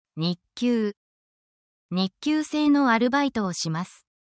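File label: Japanese word, essential Japanese phrases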